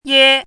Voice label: "yē", hanzi

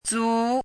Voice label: "zú", hanzi

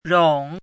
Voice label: "rǒng", hanzi

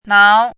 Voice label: "náo", hanzi

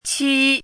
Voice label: "qī", hanzi

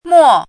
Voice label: "mò", hanzi

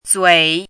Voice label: "zuǐ", hanzi

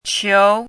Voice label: "qiú", hanzi; 仇

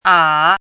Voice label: "ǎ", hanzi

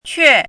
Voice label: "què", hanzi

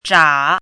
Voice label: "zhǎ", hanzi

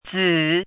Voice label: "zǐ", hanzi

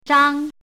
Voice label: "zhāng", hanzi

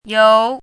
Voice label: "yóu", hanzi

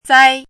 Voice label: "zāi", hanzi

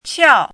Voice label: "qiào", hanzi

